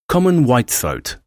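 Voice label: "common whitethroat", English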